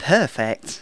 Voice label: "perfect", Speech; Worms Armageddon

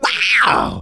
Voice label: "OUCH", Speech; Soul Man

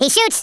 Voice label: "fireball", US Sports

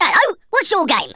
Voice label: "incoming", Speech, Geezer; Worms Armageddon

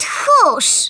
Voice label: COWARD, Speech, Polish; Worms Armageddon

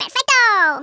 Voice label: "perfect", Hispanic; Worms Armageddon

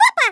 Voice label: "OOPS", Portuguese; Worms Armageddon

